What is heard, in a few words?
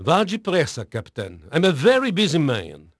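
Portuguese male, voice; Pirates Of The Caribbean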